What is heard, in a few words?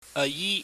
"ayi", Cantonese